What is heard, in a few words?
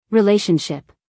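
"relationship", American English, female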